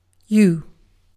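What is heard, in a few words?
"you", British English stressed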